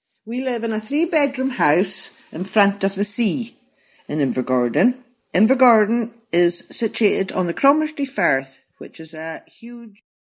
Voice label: INVERGORDON FEMALE aged 54